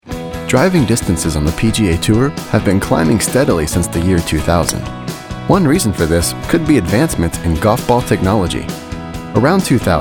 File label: Narration